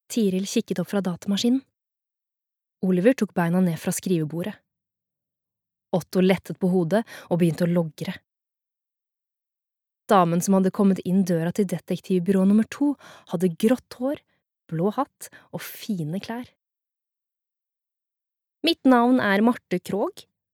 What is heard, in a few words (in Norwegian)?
no barnebok, norwegian, female